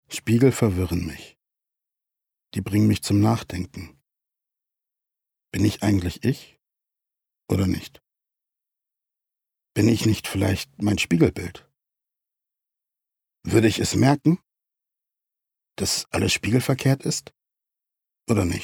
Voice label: Innerer Monolog

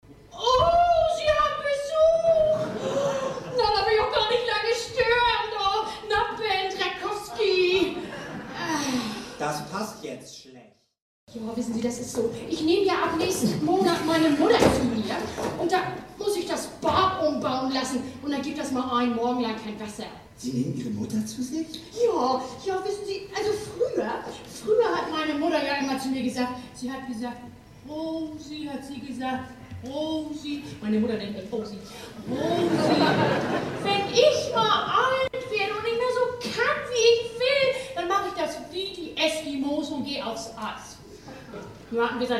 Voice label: comedy

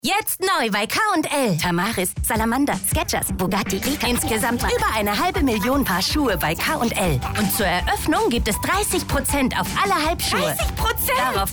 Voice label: Funkspot; KL 30 Prozent Halbschuhe

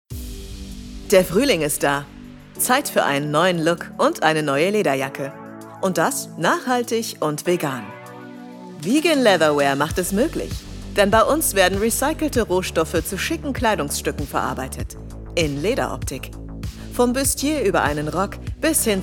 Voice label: Imagefilm Fashion

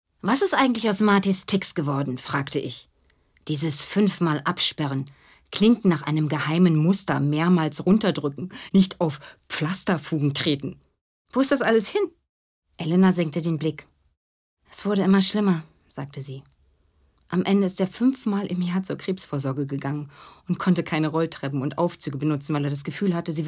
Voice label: Hoerbuch; Benedict Wells Am Ende der Einsamkeit

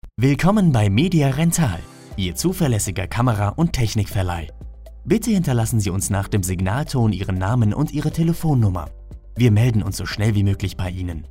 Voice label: Anrufbeantworter

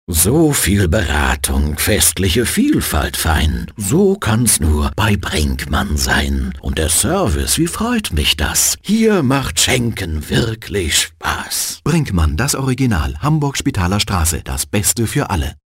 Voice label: weihnachtsmann off

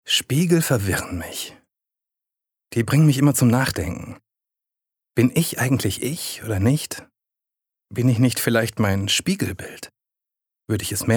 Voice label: Innerer Monolog

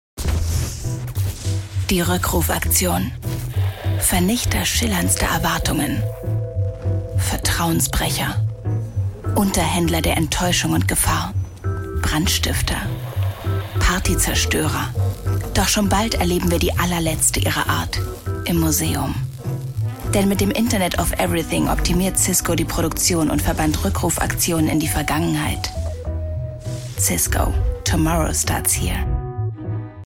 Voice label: die letzte rueckrufaktion werbung; cisco

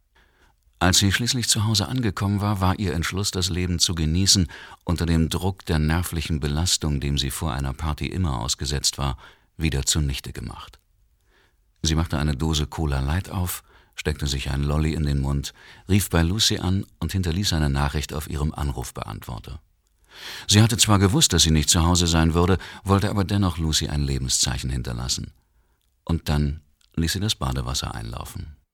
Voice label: erzaehlung

